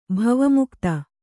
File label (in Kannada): "ಭವಮುಕ್ತ", Kannada